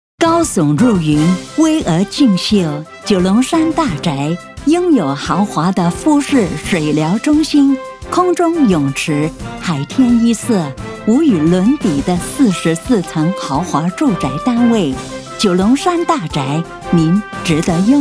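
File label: Mandarin Megamix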